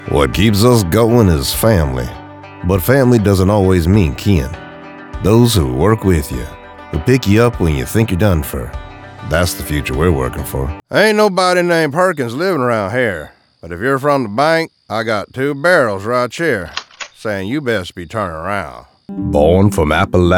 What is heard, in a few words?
Accent Southern